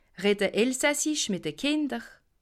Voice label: "kinder", alsace

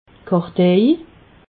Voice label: "kartej", alsace

